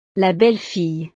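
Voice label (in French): "la belle fille", French; familia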